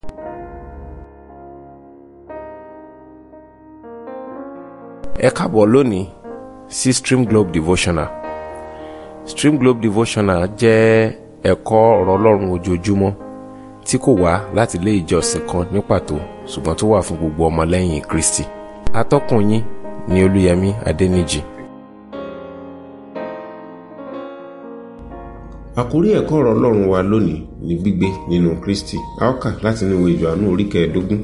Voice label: Devotional Audio Yoruba